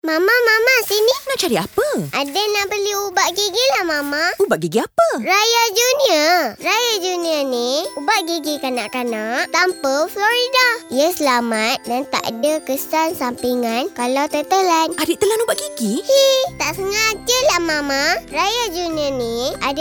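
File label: Malay; RAIYA JUNIOR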